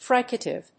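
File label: "fricative", English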